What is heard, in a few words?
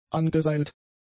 "angeseilt", German; adjectives